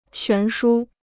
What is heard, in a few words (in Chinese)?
"悬殊", Chinese